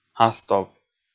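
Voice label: "астов", Komi, male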